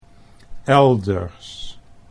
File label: "elders", Dutch